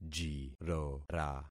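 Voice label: "jirora", concatenated syllables; Affixing experiment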